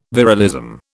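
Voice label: "virilism", British English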